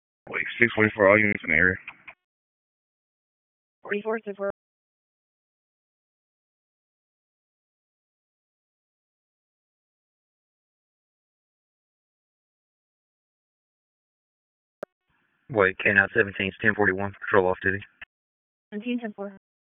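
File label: Dispatch 1, P25; wake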